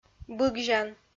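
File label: "быгзян", Komi, female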